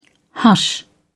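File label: "hush", British English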